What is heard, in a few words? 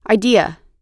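"idea", US English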